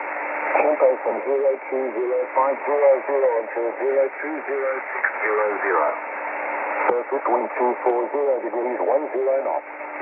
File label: Volmet, 6676kHz; Bangkok